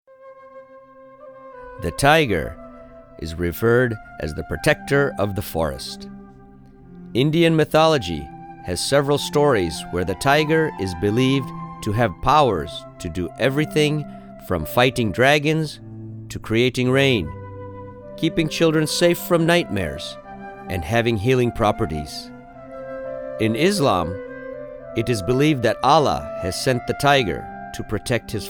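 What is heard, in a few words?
documentary english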